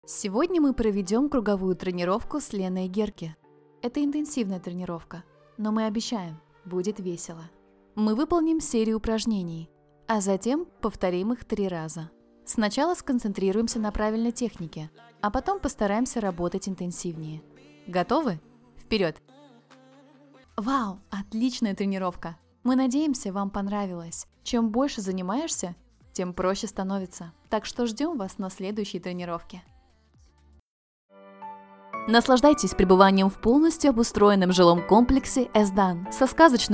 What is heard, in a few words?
russian commercial